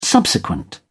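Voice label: "subsequent", British English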